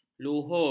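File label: "luhur", Malay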